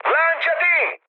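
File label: Italian bailout mood high, speech; War Thunder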